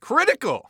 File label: announcer hit critical; paladins